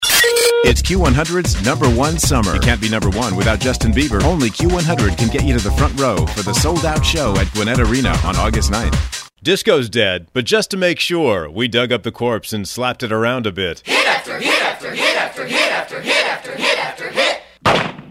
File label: Imaging